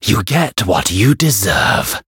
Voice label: variable chant; zeviro